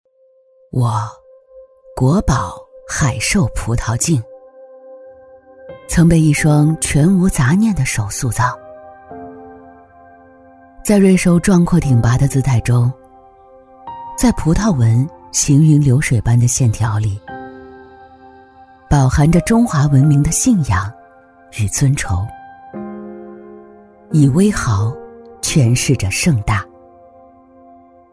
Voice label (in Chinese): 女, 专题, 情感自述; 低沉走心; 人民日报国宝海兽葡萄镜